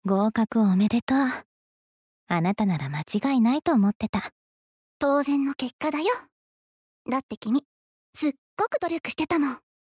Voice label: Congratulations on passing., Alarm Audio, ASMR